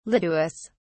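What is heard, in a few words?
"lituus", British English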